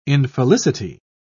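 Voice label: "infelicity", British English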